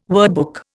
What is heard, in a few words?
"wordbook", Indian